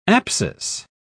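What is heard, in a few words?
"apsis", British English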